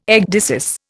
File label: "ecdysis", Indian